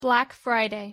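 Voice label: pronunciation "black friday", English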